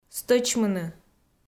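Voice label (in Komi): "стӧчмыны", Komi, female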